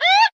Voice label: "ooh" police siren; ooh ahh